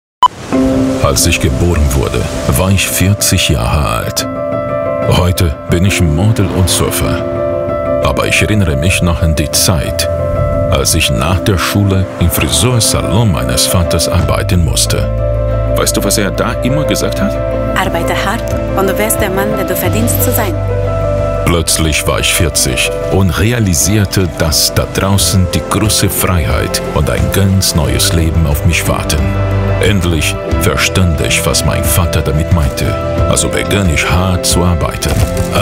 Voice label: male, Brazilian Portuguese, German corporate